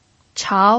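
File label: "chao", pinyin, second tone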